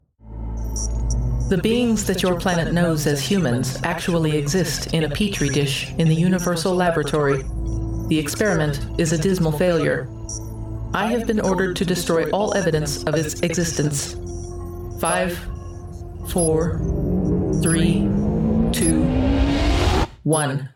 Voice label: Destruct Sequence Computer